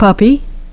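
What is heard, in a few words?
"puppy", English pronunciation; ˡpʌpi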